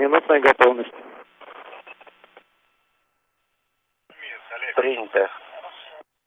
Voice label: Space to Ground; Expedition 39